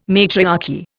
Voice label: "matriarchy", Indian